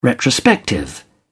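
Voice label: "retrospective", British English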